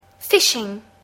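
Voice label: "fishing", English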